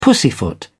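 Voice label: "pussyfoot", British English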